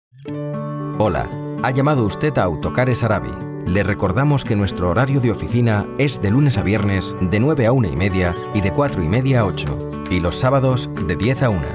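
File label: Spanish Spain, IVR; GoLocalise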